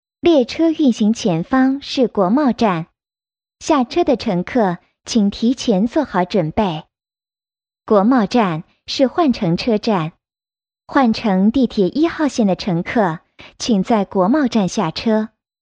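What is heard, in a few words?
Chinese, female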